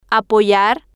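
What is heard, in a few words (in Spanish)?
"apoyar", Spanish, female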